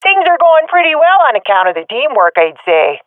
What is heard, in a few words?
round winning, swat fem; Source Model Packs; Counter Strike Model Pack; CS2